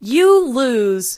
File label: You Lose, announcer female